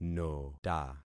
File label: "nota", concat syllables; Affixing experiment